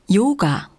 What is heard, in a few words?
german yoga; samsung YP-U5; Audio User Interface